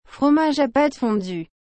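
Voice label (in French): "fromage à pâte fondu", French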